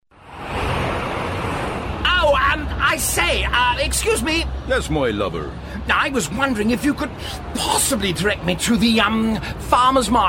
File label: Commercial; Farmers market